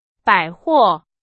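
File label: Chinese, vocabulary; hsk4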